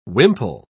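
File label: "wimple", British English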